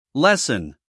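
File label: "lesson", American English, male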